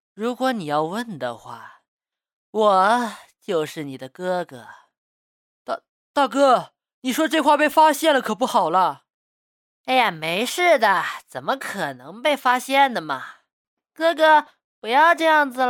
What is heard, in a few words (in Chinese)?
各种 少年音 声展